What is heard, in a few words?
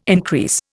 "increase", Indian